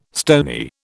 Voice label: "stony", British

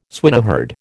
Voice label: "swineherd", American

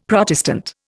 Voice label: "Protestant", Indian; Dictionary

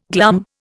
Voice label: "glum", Indian

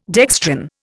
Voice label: "dextran", Indian

